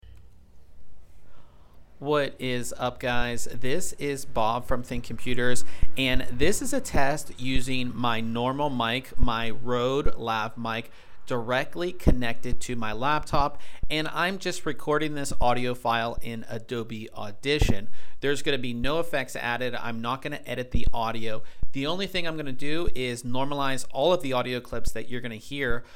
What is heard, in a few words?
mic outside